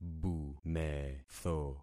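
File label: "bumetho", concatenated syllables; Affixing experiment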